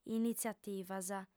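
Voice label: "initziativas", Sardinian, female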